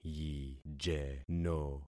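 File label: "yijeno", concatenated syllables; Affixing experiment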